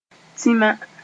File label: "tĩma", moore; very black